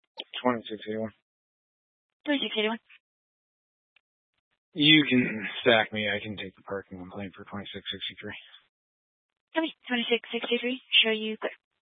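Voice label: Sheriff Dispatch, Scanner audio recording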